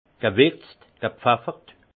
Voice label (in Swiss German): "gwirtz", alsace; vin